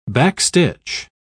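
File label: "backstitch", British English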